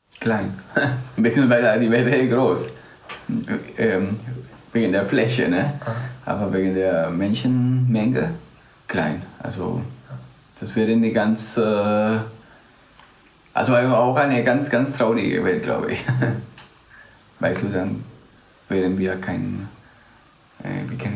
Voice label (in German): spanisch guatemala